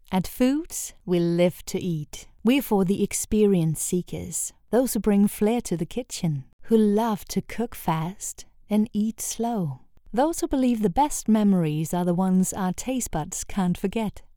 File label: Commercial English; Foodz